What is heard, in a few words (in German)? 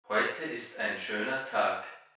faltung heute ist Raumimpuls